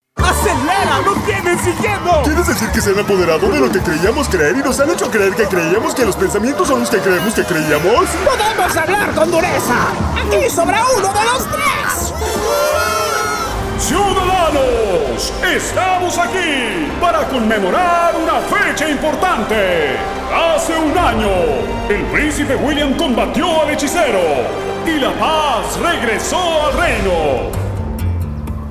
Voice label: Character